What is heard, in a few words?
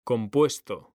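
"COMPUESTO", Spanish, male; mekanta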